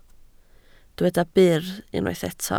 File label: "byr", Welsh